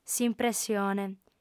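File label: "s'impressione", female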